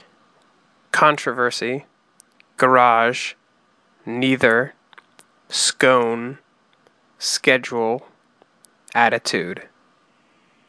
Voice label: New Haven CT male